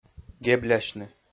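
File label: "геблясьны", Komi-Zyrian, male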